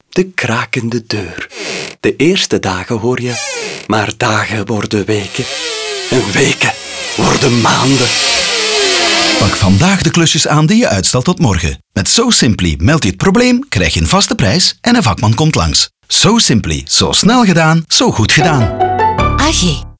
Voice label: Radio NL; So-Simply; Porte